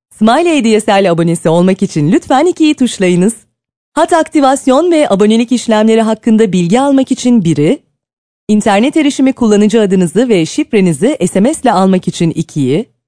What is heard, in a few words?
Turkish Female